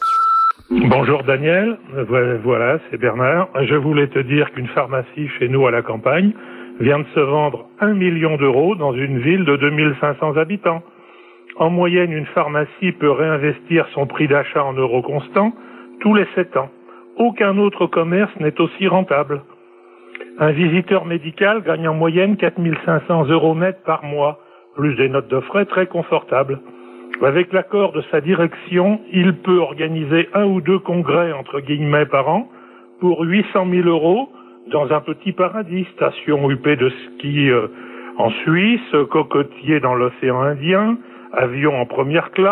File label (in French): répondeur; Systeme medical